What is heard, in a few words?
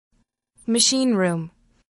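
"machine room", English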